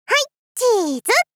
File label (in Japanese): はいっ、チーズ！妹系×高音域